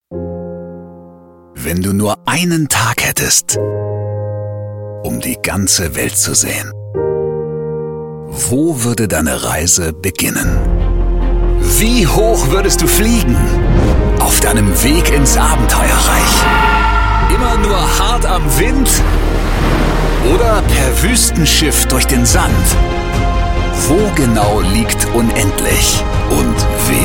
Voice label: Funkspot; Belantis

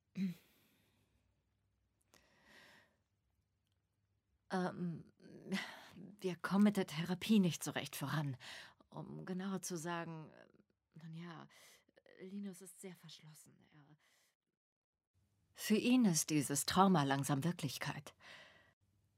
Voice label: Therapeutin

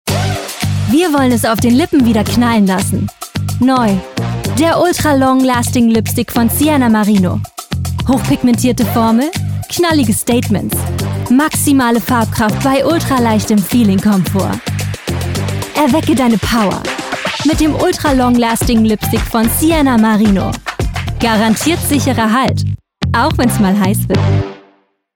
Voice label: Sprecherdemo, Demo Werbung, German, Norddeutsch; Lipstick; Commercial (Werbung); Germany; LOFT VOICES

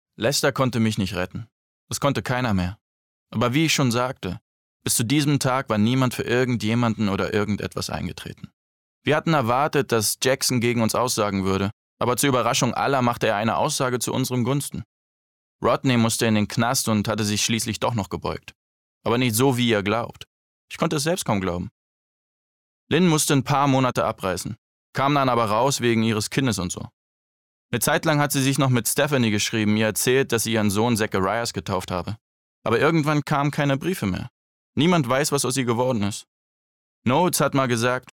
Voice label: erzaehlung

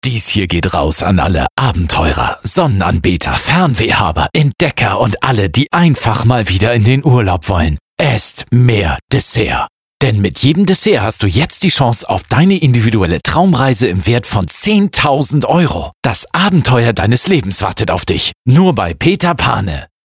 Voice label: Funk Mix; Peter Pane; Esst Mehr Dessert

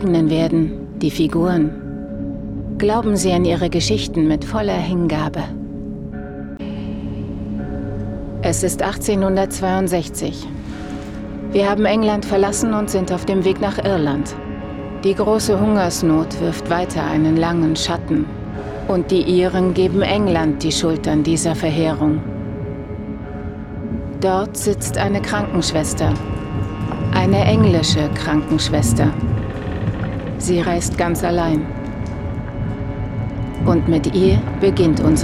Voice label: Trailer Film; "Das Wunder"

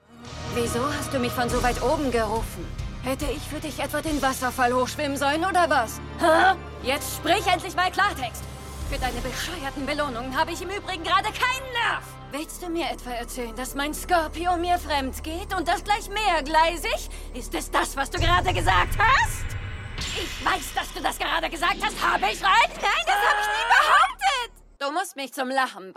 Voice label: Synchron Anime wuetend enerviert; Anime Aquarius in FairyTail

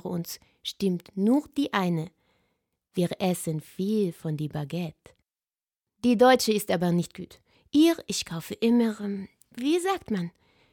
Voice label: akzent franz

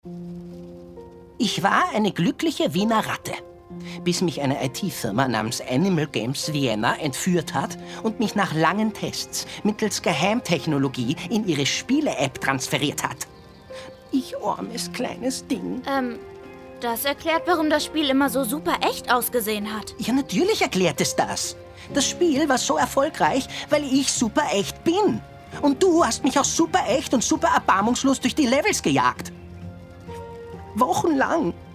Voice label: Hörspiel Kinder

